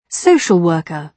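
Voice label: "social worker", British English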